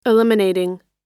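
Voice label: "eliminating", American English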